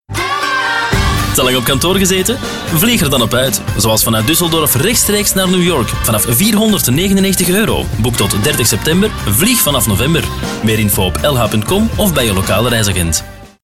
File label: Lufthansa Commercial